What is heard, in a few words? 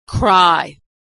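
"cry", American English; vowel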